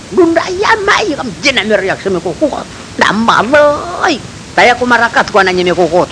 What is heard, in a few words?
Yami Language; niomahas a longtsang si Kaojinzhong